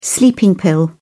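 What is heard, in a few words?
"sleeping pill", British English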